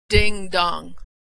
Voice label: "ding dong", American English